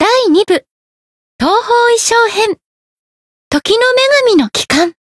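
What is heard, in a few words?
voice title, Japanese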